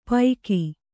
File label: "ಪೈಕಿ", Kannada